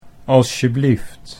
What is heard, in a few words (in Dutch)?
"alsjeblieft" Dutch